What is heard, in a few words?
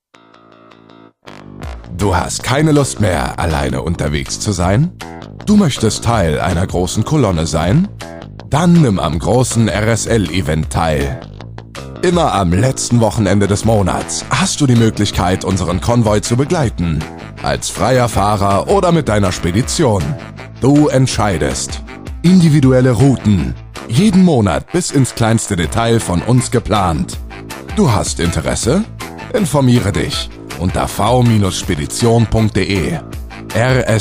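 German, male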